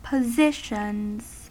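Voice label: "positions", US English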